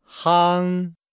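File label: "hang", Chinese; Nihao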